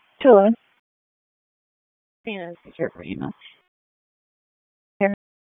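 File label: Raleigh Police Northeast District Dispatch; wake p25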